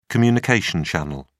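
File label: "communication channel", British English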